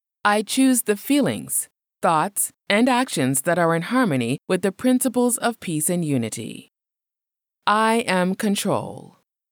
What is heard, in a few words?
I Am Control English Female